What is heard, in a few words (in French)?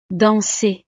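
"danser", French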